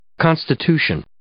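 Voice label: "constitution", English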